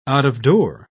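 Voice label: "out-of-door", British English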